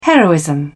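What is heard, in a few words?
"heroism", British English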